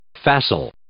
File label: "facile", English